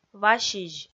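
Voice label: "васизь", Komi, female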